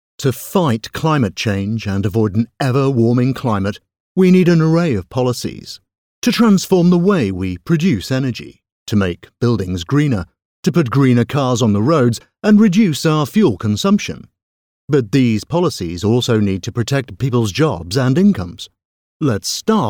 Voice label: voiceover corporate climate change promo

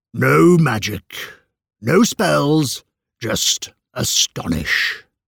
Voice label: voiceover commercial short astonish

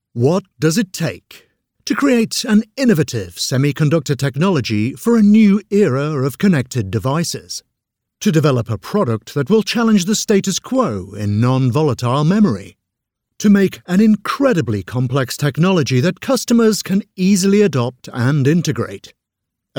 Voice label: voiceover narrative IT explainer

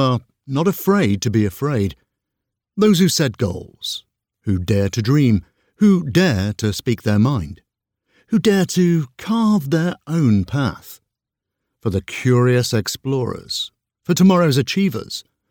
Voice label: voiceover commercial business school

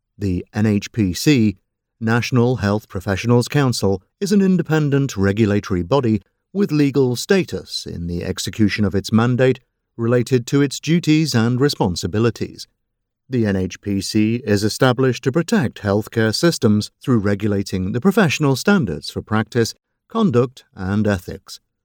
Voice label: voiceover medical

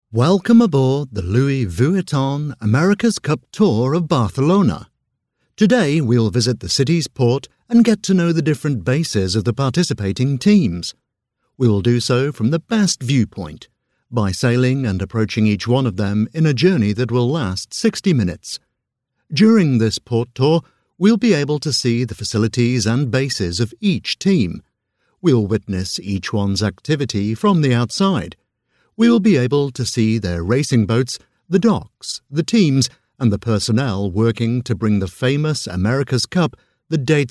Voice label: voiceover audioguide americas cup; audioguides

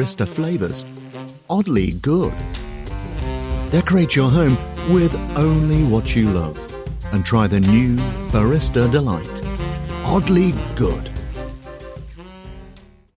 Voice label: voiceover coffee ad

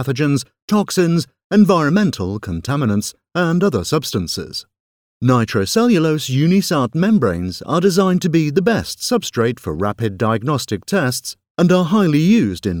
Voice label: voiceover narrative medical lateral flow assays

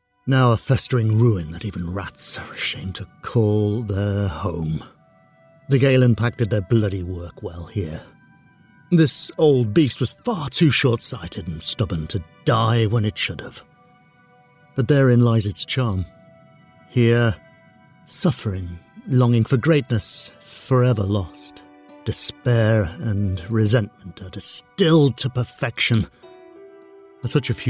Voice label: voiceover game characters

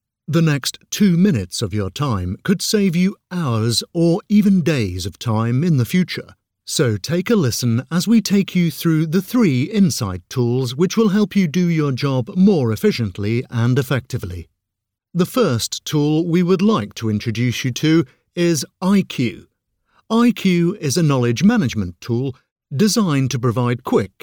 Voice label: voiceover narrative On boarding video

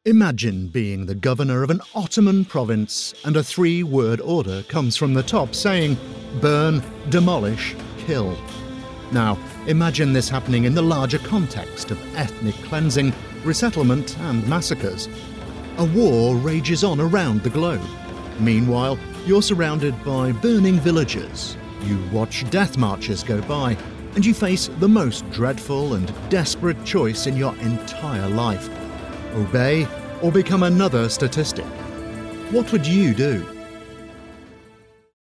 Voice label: narrative documentary history

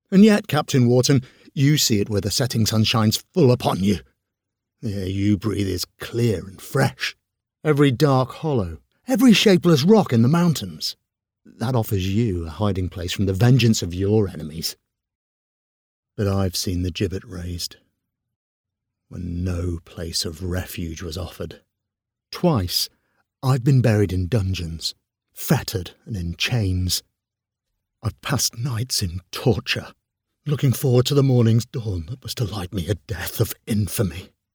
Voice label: voiceover audiobook the spy

